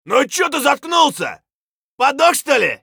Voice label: scav battlecry; eft